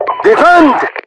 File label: defend, voiceovers